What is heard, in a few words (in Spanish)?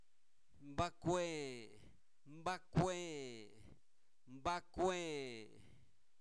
dedo, Zapoteco, santo domingo albarradas; cuerpo; INALI